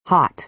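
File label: "hot", American English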